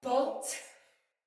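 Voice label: See Player Ready, Soldier Female, Battle Barks; Sinecure; en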